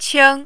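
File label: "qing", Mandarin, first tone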